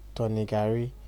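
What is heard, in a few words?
"turning garri", Nigerian Pidgin, male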